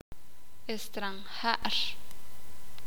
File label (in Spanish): "extranjero forastero", Sakapulteko